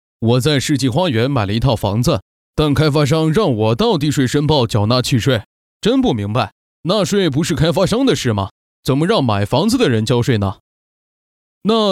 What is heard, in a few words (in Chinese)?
课件角色; 房产契税